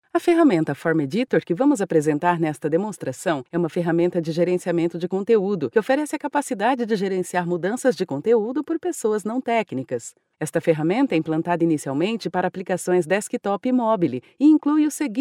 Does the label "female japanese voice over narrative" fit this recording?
No